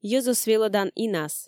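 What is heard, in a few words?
"йӧзӧс велӧдан инас", Komi, female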